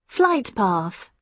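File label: "flight path", British English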